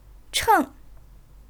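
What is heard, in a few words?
"cheng", Chinese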